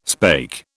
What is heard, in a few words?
"spake", British